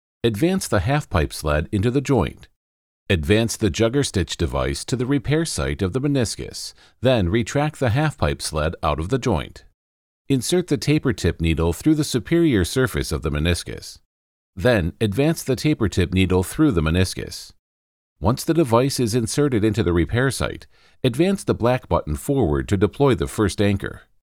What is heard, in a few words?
e-learning medical